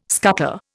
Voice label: "scutter", Indian